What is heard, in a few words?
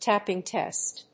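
"tapping test", English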